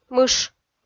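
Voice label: "мыш", Komi-Zyrian, female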